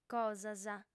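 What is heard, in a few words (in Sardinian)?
"cosas", female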